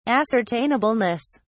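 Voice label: "ascertainableness", British English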